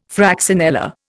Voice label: "fraxinella", Indian